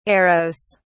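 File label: "eros", British English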